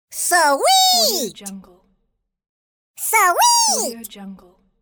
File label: cartoon voice sweet; applehillstudios